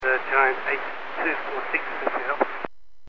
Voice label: Aviation 121.5 Emergency